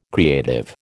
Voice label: "creative", American

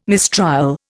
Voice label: "mistrial", Indian

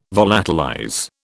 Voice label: "volatilize", British

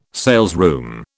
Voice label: "salesroom", British

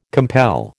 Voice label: "compel", American

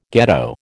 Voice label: "ghetto", American

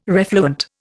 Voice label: "refluent", Indian

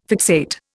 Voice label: "fixate", Indian

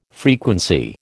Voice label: "frequency", American

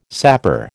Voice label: "sapper", American

